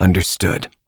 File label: Operator Voice, en; Warframe; New War